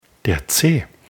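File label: "Zeh", German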